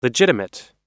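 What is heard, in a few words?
"legitimate", English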